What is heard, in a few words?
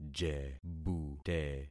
"jebute", concat syllables; Affixing experiment